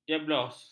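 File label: "jeblos", Malay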